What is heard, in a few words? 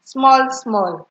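"smallsmall", Nigerian Pidgin, female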